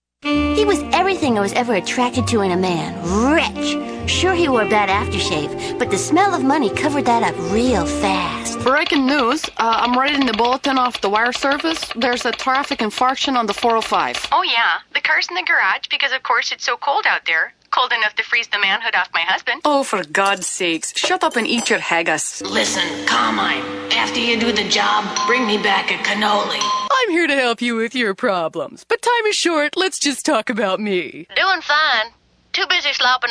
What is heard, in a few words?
Animation Characters